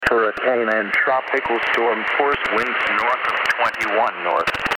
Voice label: weather sounds voice